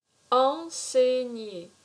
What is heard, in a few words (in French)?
"Enseigner", french